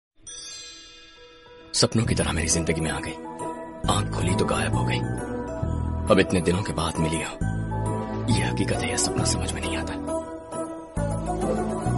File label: Romantic Dialogue